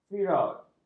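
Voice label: "fiord", Malay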